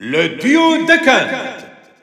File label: French Announcer; Duck Hunt; SSBU